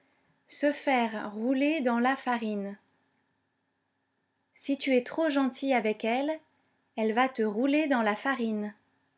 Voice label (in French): "farine", French